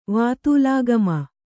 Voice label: "ವಾತೂಲಾಗಮ", Kannada